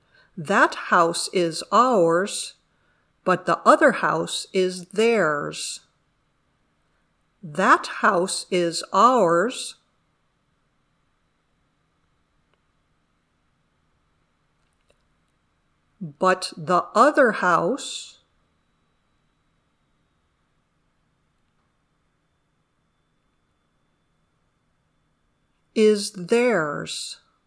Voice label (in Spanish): Dictado